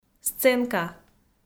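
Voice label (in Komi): "сценка", Komi, female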